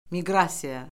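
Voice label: "miqrasiya", Azerbaijani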